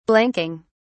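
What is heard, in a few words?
"blanking", British English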